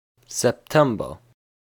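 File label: "September", Luxembourgish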